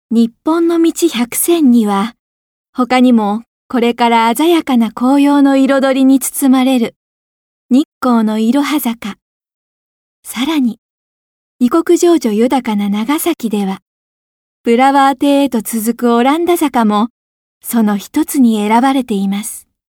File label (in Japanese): 紀行ナレ